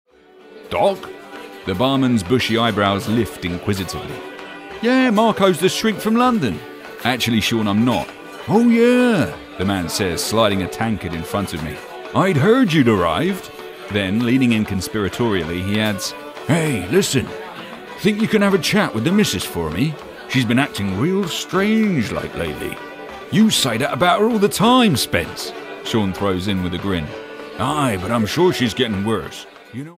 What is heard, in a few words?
english british audiobooks